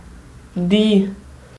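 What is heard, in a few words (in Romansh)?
"dir", Romansh Sursilvan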